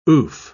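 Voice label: "oof", British English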